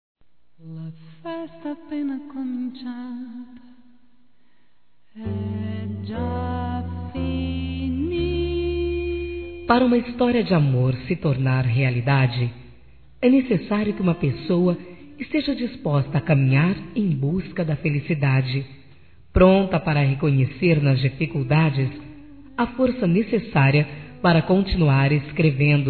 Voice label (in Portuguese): Aniversário Avó Feminino; Aniversários; Aniv Familiar